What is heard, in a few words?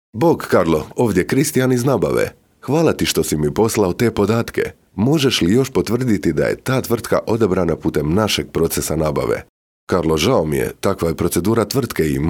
croatian m narration, voice demos